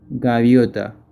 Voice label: Seagull spanish

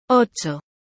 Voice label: "Ocho", spanish

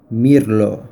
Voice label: Blackbird spanish

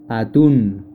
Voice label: Tuna spanish

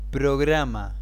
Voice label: Programme, spanish

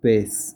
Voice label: Fish spanish

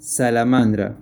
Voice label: Salamander spanish

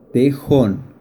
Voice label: Badger spanish

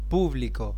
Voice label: Audience spanish

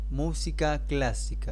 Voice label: Classical music spanish

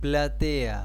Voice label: Circle spanish

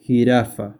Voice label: Giraffe spanish

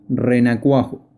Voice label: Tadpole spanish

